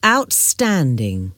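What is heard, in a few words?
"outstanding", English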